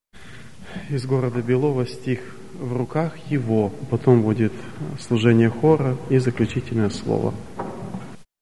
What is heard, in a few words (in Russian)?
Объявление; Молодежное Абакан июнь